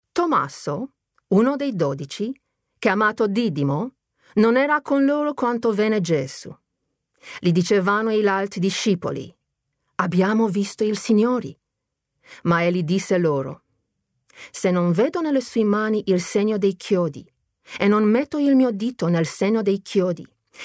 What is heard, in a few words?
Italian; Big Mouth Voices